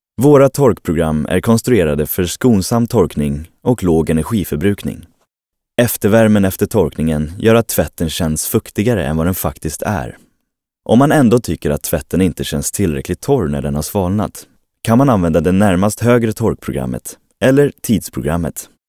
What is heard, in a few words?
se informative